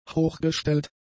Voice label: "hochgestellt", German; adjectives